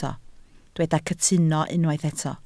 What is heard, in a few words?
"cytuno", Welsh